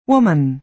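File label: "woman", American English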